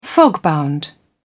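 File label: "fogbound", British English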